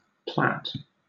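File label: "plat", British English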